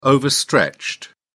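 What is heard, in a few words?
"overstretched", English, British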